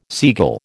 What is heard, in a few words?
"seagull", American